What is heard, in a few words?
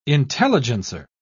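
"intelligencer", British English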